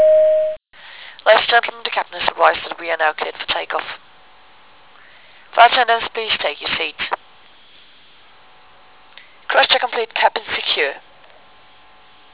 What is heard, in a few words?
pretakeoff, announce